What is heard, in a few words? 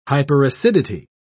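"hyperacidity", British English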